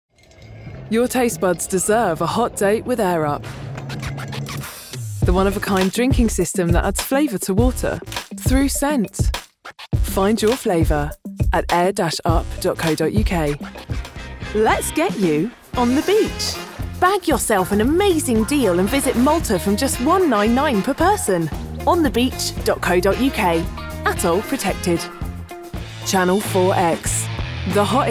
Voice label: Commercial